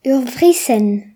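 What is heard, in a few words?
"stick", Breton; gcompris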